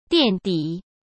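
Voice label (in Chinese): "垫底", Mandarin Chinese; chinese flashcards; hsk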